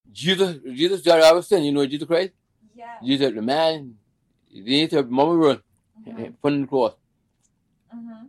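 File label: USA South Carolina Cedar Grove Gulla Male 80s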